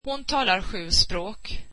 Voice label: swedish she speaks seven languages

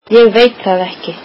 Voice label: icelandic I dont know

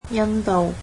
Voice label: "India", cantonese

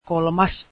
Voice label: third, finnish

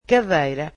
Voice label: "chair", Portuguese

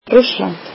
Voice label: icelandic Russia

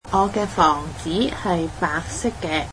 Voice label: cantonese my house is white

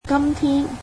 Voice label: cantonese today

